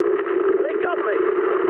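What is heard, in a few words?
English aircraft destroyed mood med; War Thunder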